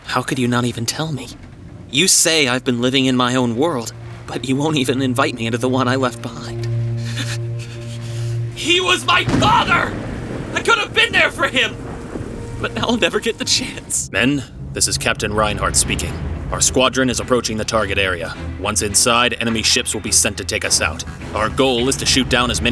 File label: Character